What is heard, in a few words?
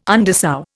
"undersow", Indian